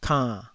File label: "kann3", taiwanese syllable